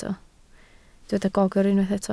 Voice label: "gogr", Welsh

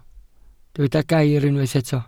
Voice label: "gair", Welsh

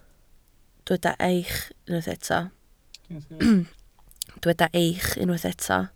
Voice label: "eich" Welsh

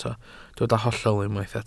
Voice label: "hollol" Welsh

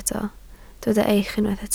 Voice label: "eich", Welsh

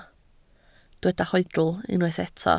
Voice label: "hoedl", Welsh

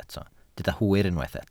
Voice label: "hwyr", Welsh

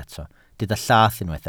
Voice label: "llath", Welsh

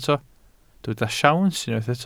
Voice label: "siawns", Welsh

